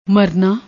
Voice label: "marnaa", Punjabi; ਮਰਨਾ